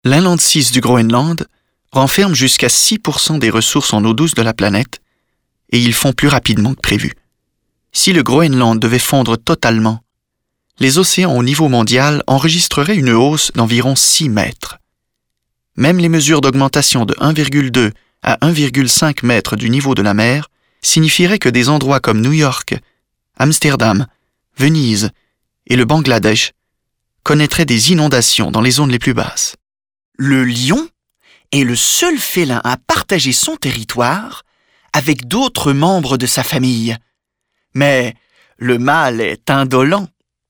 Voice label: narration